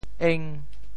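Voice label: "eng5", Teochew; 潮州; 容纵; 纵容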